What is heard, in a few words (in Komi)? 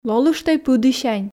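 лолыштӧй пыдісянь, Komi, female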